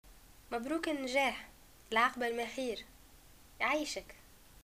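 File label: greeting graduation, Tunisian Arabic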